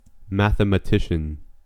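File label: "mathematician", American English; pronunciations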